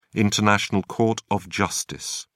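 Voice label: "international court of justice", British English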